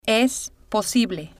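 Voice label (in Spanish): "es posible", spanish, female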